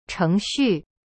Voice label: "cheng2xu4", Chinese; cyberchinese